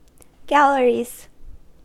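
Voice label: "galleries", American English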